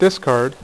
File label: "discard", dealer's speech; PowerPOKER Demo